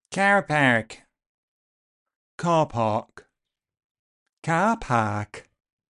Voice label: Welsh